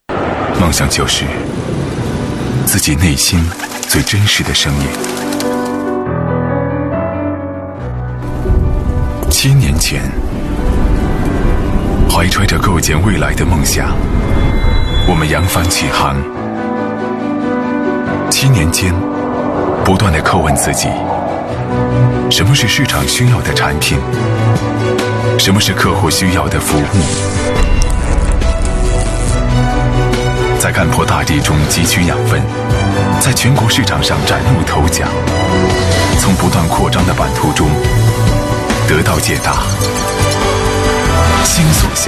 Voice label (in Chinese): 男 地产 内心声音